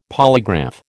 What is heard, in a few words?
"polygraph", American